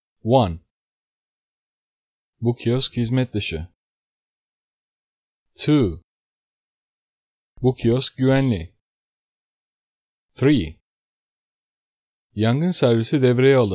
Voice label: Elevator Messages